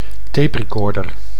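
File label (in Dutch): "taperecorder", Dutch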